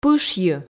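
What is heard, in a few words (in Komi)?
"пышйы", Komi, female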